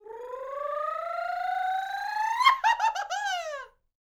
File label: MEXICO VOICE, MEXICAN; ILIO; Vocal Planet VOL-5